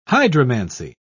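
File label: "hydromancy", British English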